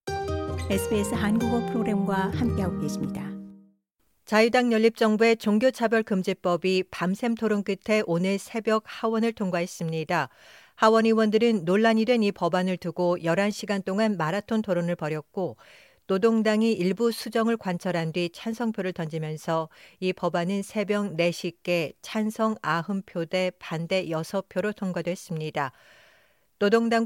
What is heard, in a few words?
korean; 정부 종교차별금지법, 마라톤 토론 끝에 하원 통과; SBS Korean; 자유당 연립 정부의 종교차별금지법이 밤샘 토론 끝에 오늘(10일) 새벽 하원을 통과했다.